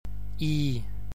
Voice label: stressed н, gaelic